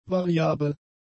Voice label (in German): "variabel", German; adjectives